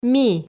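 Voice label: "ми", Komi, female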